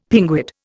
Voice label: "pinguid", Indian; Dictionary